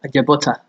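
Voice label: "ajebutter", Nigerian Pidgin, male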